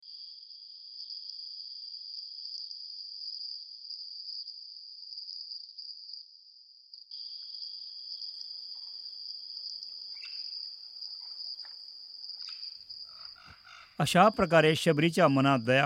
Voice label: Narration; Shabri Drama; Gopals Studio